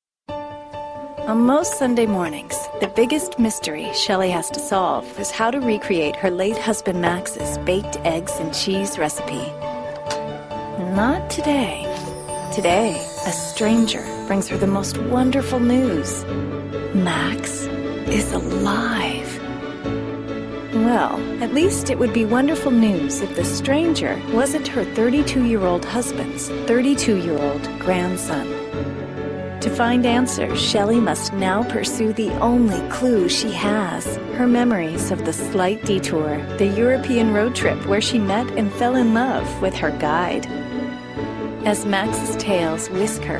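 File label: english us female narration; voice demos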